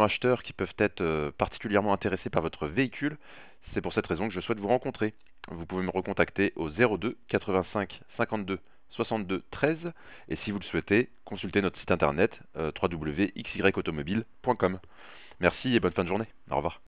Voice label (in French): message vocal auto